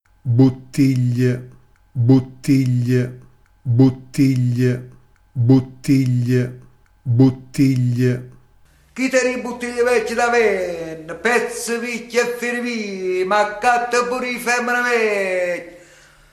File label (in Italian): "buttiglie", dialetto di foggia